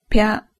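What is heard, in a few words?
"pya", Japanese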